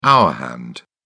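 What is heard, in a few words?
"hour hand", British English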